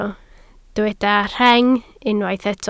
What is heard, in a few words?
"rheng", Welsh